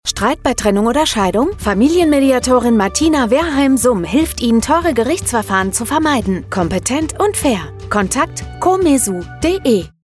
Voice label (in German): Funkspot